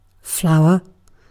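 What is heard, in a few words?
"flower", British English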